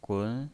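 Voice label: "gun", Mandarin Chinese, second tone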